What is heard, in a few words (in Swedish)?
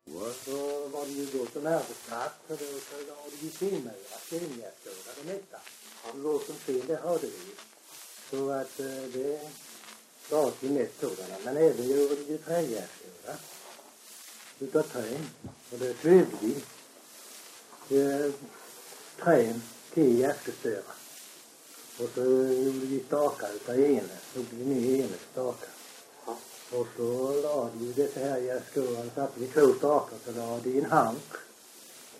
Öljehult BLEK, dialekter; Folkminnen; Svenska sagor